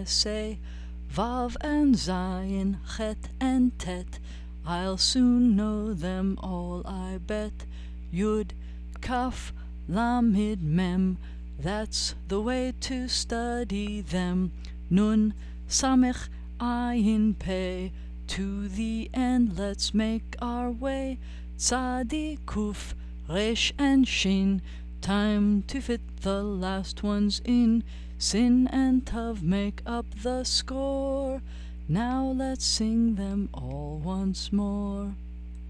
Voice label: Aleph Bet with English